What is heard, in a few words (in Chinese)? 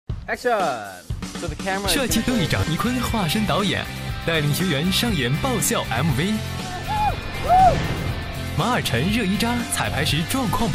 男 栏目 导视, 国语男声配音, 青春时尚; 浙江卫视《青春练习生》第十期导视